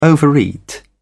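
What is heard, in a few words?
"overeat", British English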